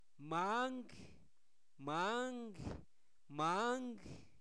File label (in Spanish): camisa, Zapoteco, santo domingo albarradas; objetos inanimados